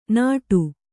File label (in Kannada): "ನಡು", Kannada